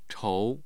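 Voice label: "chou", Mandarin Chinese, tone 2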